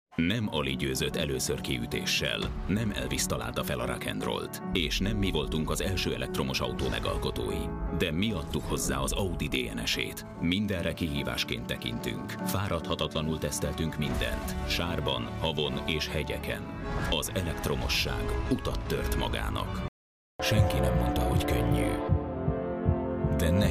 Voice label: hungarian commercial; Hungría; Húngaro